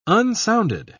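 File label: "unsounded", British English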